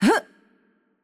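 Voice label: Attack Light, Czech; cs CZ; VO; bloom minigame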